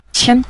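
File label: "qian", Mandarin, neutral tone; xǐ qian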